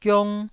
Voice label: "giong1", Taiwanese syllable